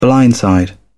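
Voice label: "blindside", British English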